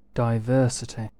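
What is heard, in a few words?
"diversity", British English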